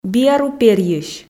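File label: "биару перйысь" Komi, female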